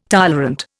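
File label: "tolerate", Indian; Dictionary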